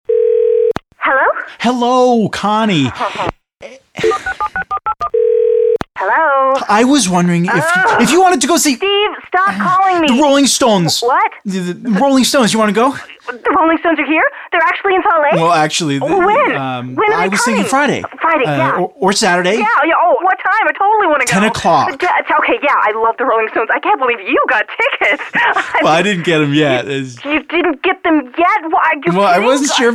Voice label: voice-over